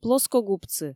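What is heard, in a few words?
"плоскогубцы", Komi, female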